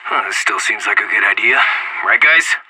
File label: radio lock n load; anarchist